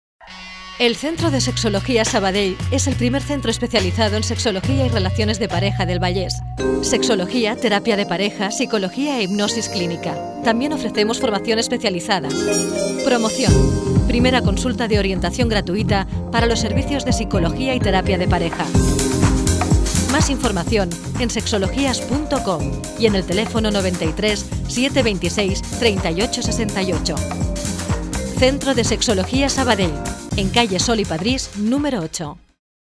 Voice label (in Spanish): anuncio